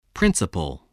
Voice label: "principle", voice, spelling